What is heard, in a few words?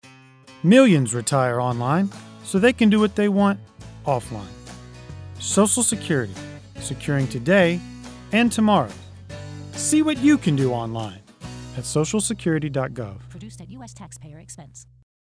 Country PSA Retire